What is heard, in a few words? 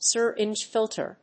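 "Syringe filter", English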